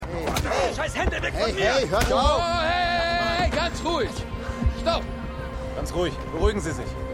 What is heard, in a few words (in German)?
Einschreitender Mann; The Morning Show